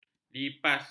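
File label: "lipas", Malay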